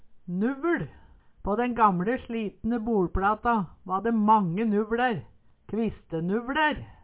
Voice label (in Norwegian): nuveL, Numedalsmål